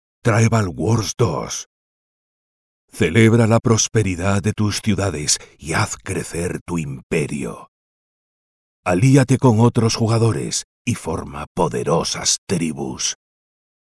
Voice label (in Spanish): locutor espanol